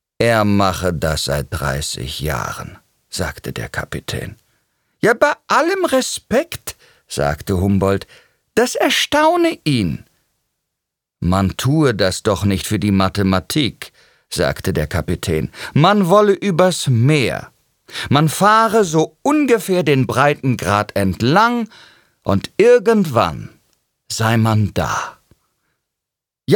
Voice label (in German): Hoerspiel